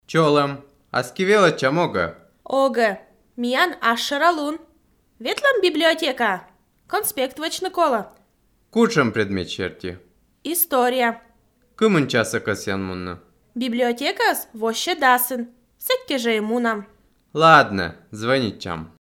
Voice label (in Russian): dialog